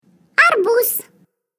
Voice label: "watermelon", Russian

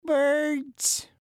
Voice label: "birds", English

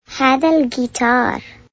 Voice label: "this is a ukulele", Arabic

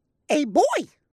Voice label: "boy", English

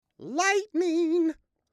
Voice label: "lightning", English

